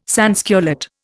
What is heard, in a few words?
"sansculotte", Indian